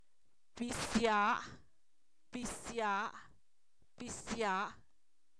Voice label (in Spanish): cereza, Zapoteco, san miguel albarradas; comestible